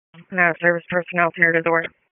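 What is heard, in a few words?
EMS DISP, radio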